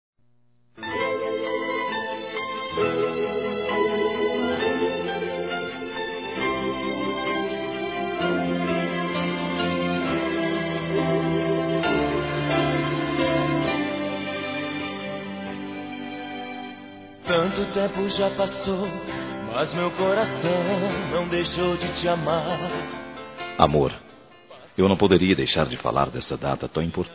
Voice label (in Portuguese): Aniversário Sertanejo Casamento Esposa Masculino; ANIVERSARIO romantico